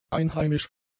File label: "einheimisch", German; adjectives